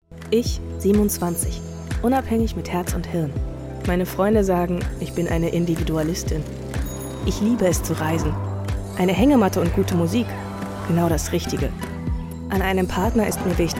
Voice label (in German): Imagefilm junge dynamische Stimme; LOFT VOICES